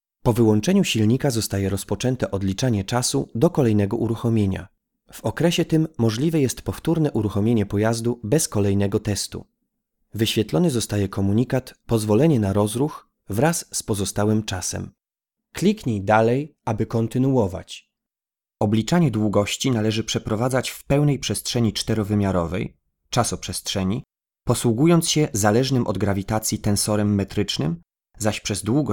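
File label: Polish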